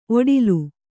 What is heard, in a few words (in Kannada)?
"ಒಡಲು", kannada